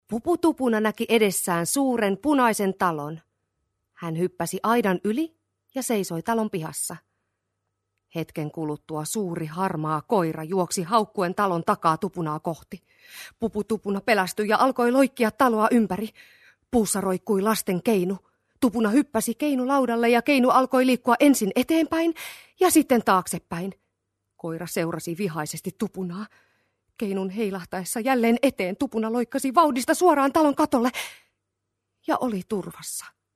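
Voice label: Finnish, female, children story; voice over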